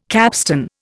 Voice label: "capstan", Indian